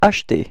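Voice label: "acheter", French; frans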